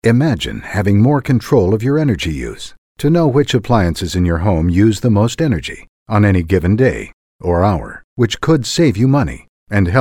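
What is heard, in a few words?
commercial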